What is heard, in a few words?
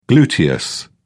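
"gluteus", English, British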